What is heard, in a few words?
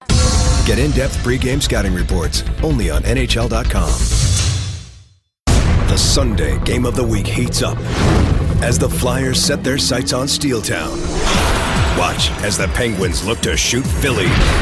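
American English, Commercial; voice demos; GoLocalise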